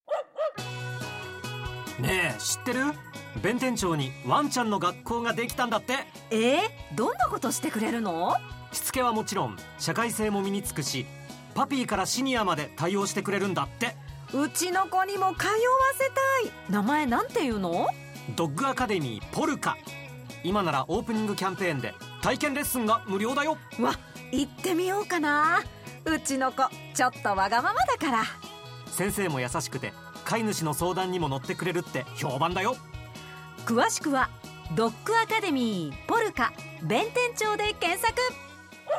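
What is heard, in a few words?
cm, ラジオ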